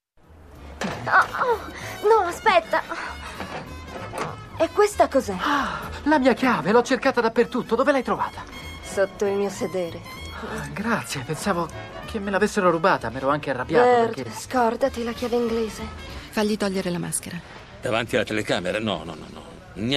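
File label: Italian Characters; GoLocalise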